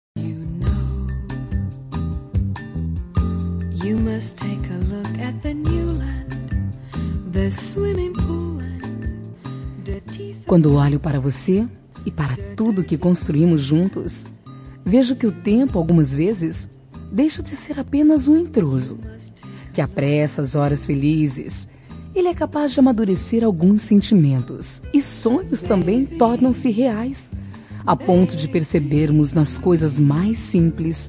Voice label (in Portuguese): Romântica pra Esposo, F